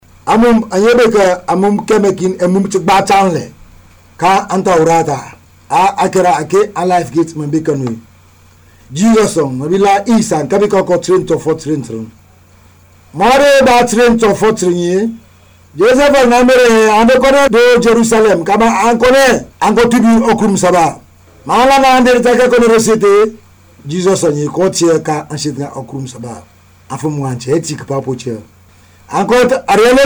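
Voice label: Themne Sierra Leone; Africa; Stories; TWELVE YEARS OLD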